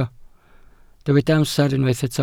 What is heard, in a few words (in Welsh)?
"amser", Welsh